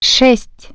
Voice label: "0", Russian Human Voice Tone

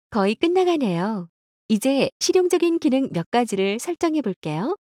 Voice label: samsung step, ko; Samsung Galaxy S10; SuwScriptPlayer; VZW

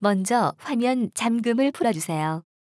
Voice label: unlock the phone first, Korean; Samsung Galaxy S8

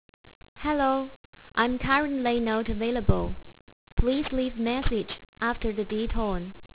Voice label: Greeting, Answer Machine, English; MediaTek

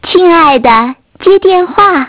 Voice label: Woman Voice; Innostream INNO 55

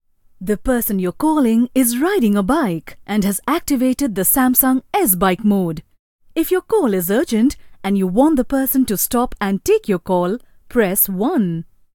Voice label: guidance en us, BikeMode; Samsung; Galaxy A7 2016

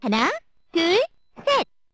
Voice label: One Two Three, camera preparing sound; SKY IM-S500K

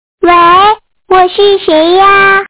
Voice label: Child Voice; Innostream INNO 55

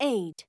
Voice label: lady

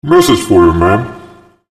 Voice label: Robotic Female; Sony Ericsson; ringtones

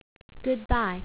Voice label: "Bye", English; MediaTek; AnswerMachine

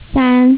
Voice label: "3" female mandarin; MediaTek; HumanVoice

